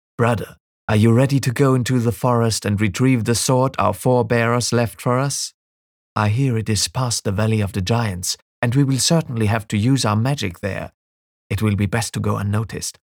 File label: english animation